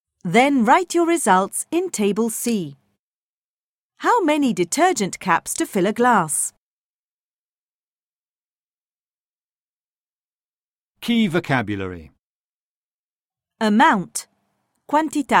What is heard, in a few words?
Inglese Measuring Water Volume